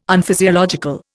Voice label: "Unphysiological", Indian